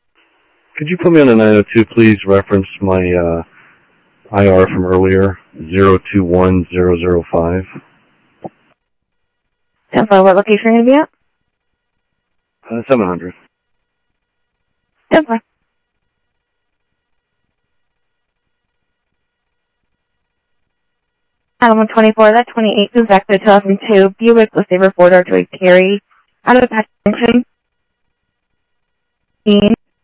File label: Sheriff, scanner audio